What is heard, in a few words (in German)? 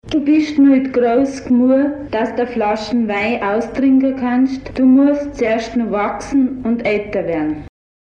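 Dialekte Lenggries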